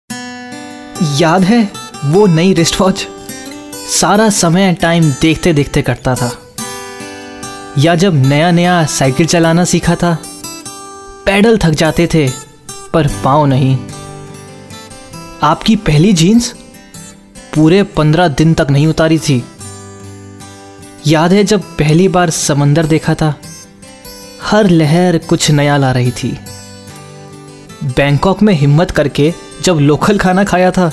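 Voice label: Hindi character voice, male voice; ghaziabad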